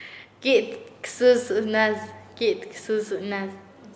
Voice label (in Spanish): "crujir los dientes", Nasa Yuwe; paez